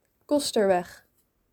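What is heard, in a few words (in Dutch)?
"Costerweg", Dutch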